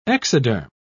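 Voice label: "exoderm", British English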